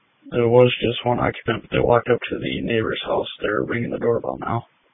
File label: Police Tactical Encrypted